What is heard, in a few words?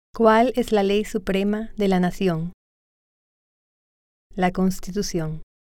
Spanish